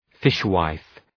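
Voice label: "fishwife", English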